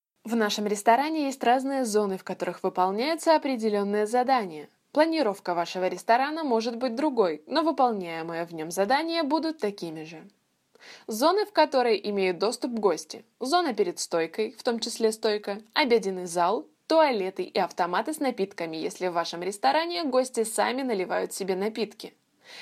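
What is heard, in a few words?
female russian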